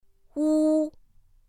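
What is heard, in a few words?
"w", Mandarin Chinese pinyin; pinyin2; pinyinji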